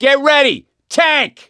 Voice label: heard hulk, voice; gambler

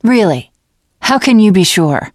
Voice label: teen girl

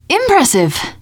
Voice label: taunt, teen girl; player; survivor; voice

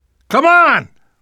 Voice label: revive friend loud, namvet, survivor voice

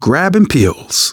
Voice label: take pills, survivor voice; manager; Left 4 Dead 2; left4dead2 dlc2

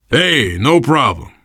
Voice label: you are welcome, voice, biker; Left 4 Dead 2; survivor

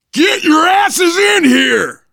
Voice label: stay together inside, voice, biker; Left 4 Dead 2; left4dead2 dlc3; survivor

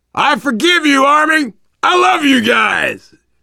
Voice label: world farmhouse, voice, biker

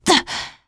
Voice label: shoved, teen girl; player; survivor; voice

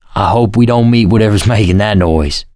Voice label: heard special, survivor voice

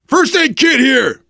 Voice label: spot first aid, voice, biker; Left 4 Dead 2; left4dead2 dlc3; survivor

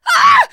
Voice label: incapacitated injury, teengirl, voice; player; survivor

